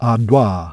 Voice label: Nasalizzata; IPA

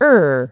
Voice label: Rotacizzata; IPA

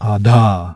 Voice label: Linguolabiale; IPA

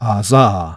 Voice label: Laringalizzata; IPA

